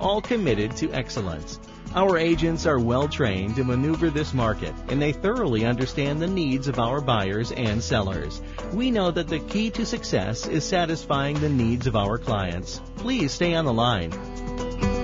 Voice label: real estate male personalization